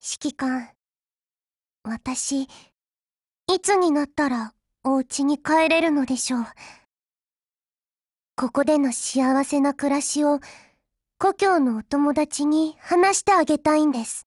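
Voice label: DIALOGUE WEDDING, Japanese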